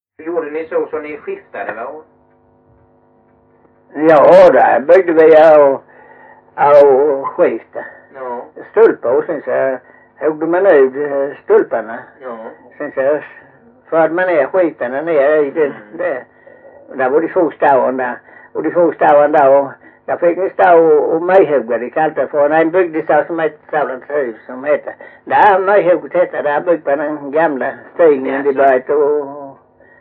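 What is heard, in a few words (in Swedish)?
Mjällby Blekinge, dialekter; Folkminnen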